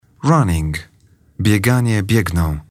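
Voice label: "running", English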